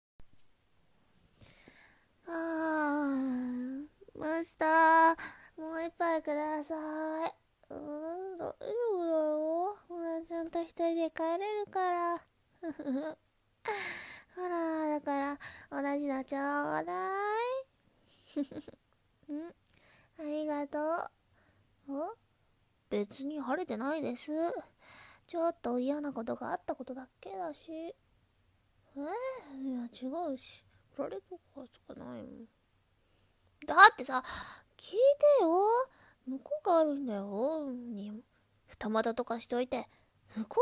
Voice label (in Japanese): 男性向けASMR お姉さん×甘々 年上系シチュエーションボイス; ね、今日あたしと君が会えたのって運命だと思わない？